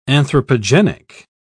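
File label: "anthropogenic", English, British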